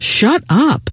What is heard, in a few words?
shut up, scientist female